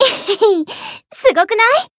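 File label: growth, Japanese; nona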